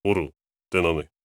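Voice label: question short, voice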